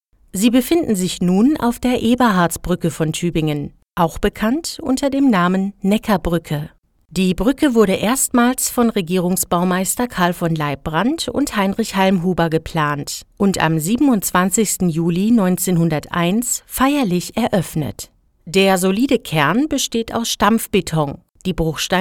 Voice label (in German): Audio Guide Stadtführung